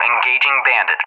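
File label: "engaging bandit", English; USA; DCS; Allied Flight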